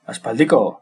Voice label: "long time no see", Basque

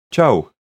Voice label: goodbye, czech

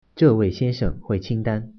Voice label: "pay", Mandarin

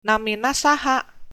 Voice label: name, sundanese

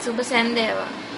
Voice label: good evening, sinhala

